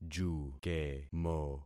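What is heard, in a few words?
"jukemo", concatenated syllables; Affixing experiment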